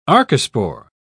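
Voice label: "archespore", English, British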